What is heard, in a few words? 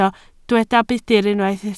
"budr", Welsh